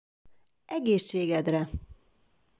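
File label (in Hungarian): "Egeszsegedre", Hungarian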